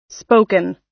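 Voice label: "spoken", US English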